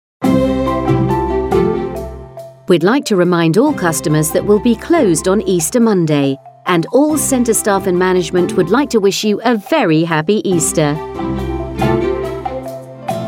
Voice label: Easter Female